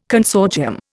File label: "consortium", Indian